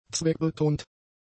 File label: "zweckbetont", German; adjectives